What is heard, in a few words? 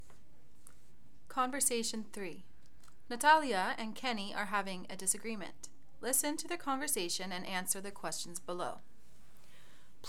Conversation